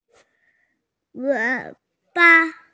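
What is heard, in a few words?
"wei3ba5", Mandarin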